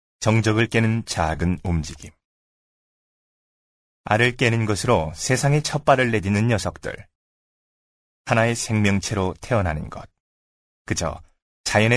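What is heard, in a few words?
Korean, male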